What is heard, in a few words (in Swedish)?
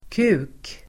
"kuk", Swedish